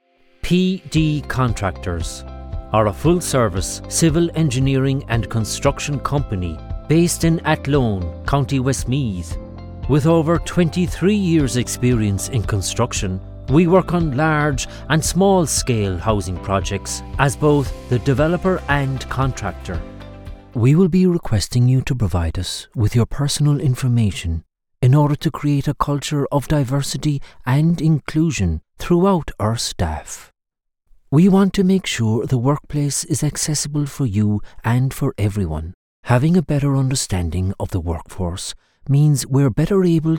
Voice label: Corporate